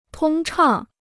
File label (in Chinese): "通畅", Chinese